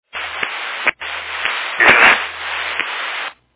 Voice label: Fire Dispatch